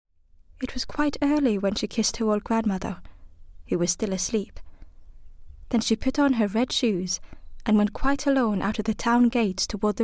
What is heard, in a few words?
female Norwegian English with Norwegian accent audiobook narration